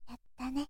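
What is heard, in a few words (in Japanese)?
"yattane", Japanese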